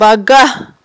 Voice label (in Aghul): "багагь", aghul